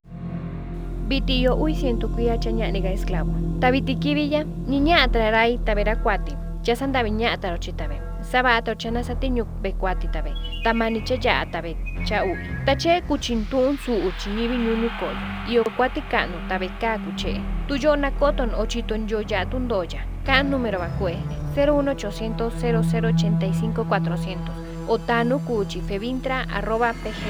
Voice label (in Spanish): mixteco del oeste de la costa; Trata Laboral